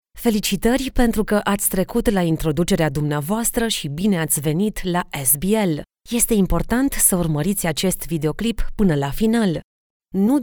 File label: e Learning